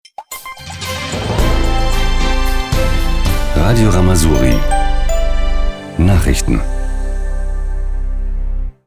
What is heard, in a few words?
Station Voice